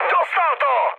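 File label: aircraft destroyed, Czech, mood high; War Thunder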